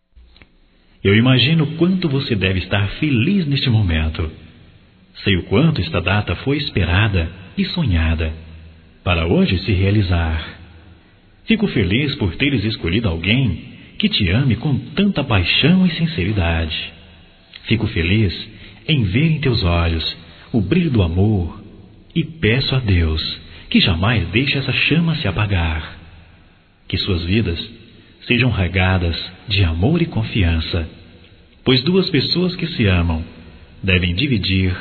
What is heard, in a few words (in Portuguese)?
Cumprimento Casamento Masculino; Casamentos; fem; Telemensagens 2017